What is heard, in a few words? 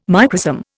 "microsome", Indian